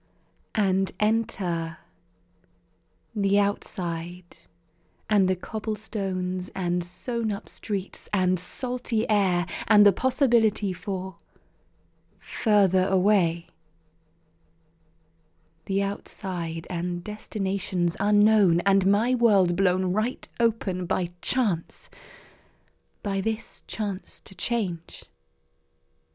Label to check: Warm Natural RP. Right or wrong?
Right